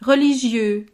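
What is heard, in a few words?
"religieux", French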